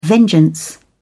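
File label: "vengeance", British English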